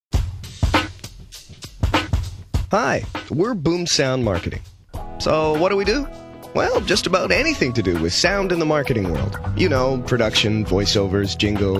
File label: narration